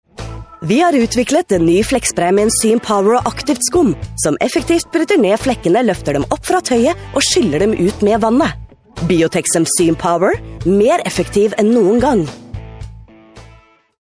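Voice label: norwegian female commercial, voice demos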